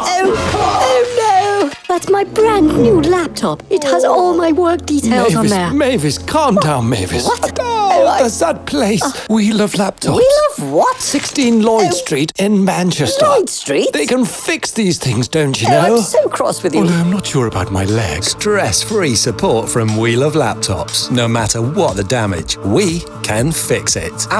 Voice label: Radio Advert; We Love Laptops; Unity Radio